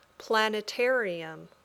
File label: "planetarium", English